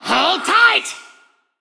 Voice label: Female Forsaken Attack

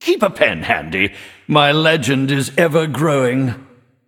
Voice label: Draenei Bartender Greetings Narrator

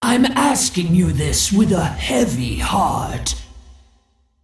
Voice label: Female Dragon Bark

